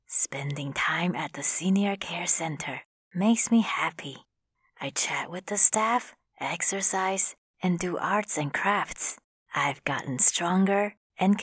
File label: grandma in english; female voice in Mandarin Chinese